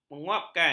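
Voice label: "menyuapkan", Malay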